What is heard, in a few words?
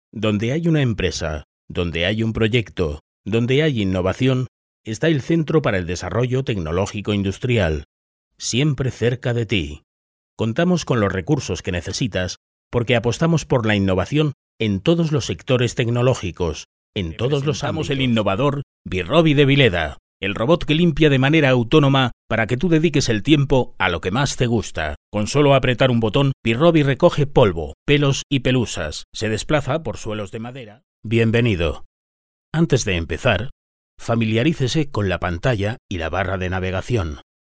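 Spanish European General; GoLocalise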